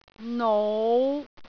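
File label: "nou5", Cantonese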